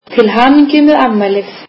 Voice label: icelandic Happy birthday